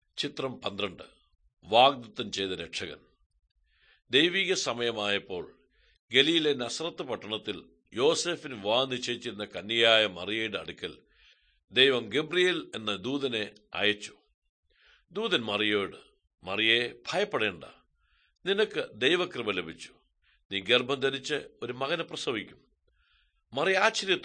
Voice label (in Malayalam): good news malayalam, drama; world bible translation center nt drama